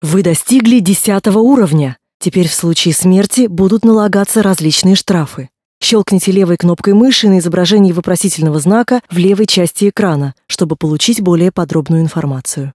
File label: tutorial voice ru; lineage2